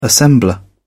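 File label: "assembler", British English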